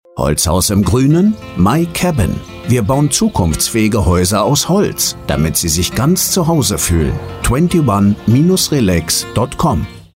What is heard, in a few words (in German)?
Funkspot; 21 Stories